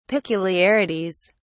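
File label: "peculiarities", English, British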